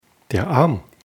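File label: "arm", German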